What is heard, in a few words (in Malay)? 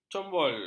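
"combol", Malay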